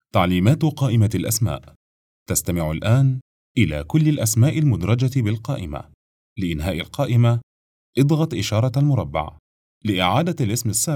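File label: Arabic IVR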